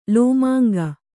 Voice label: "ಲೋಮಾಂಗ", kannada